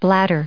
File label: "bladder", en us